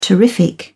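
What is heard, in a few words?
"terrific", English, British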